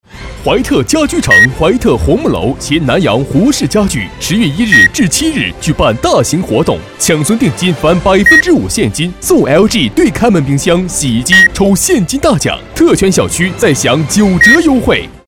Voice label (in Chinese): 男 广告 激情 家居城; 怀特家居城